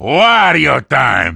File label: battlecry; wario